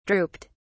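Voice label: "drooped", British English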